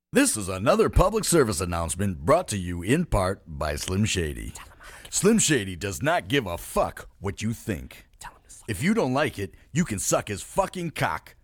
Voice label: public service announcement